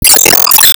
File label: Emo Damn